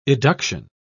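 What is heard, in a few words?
"eduction", British English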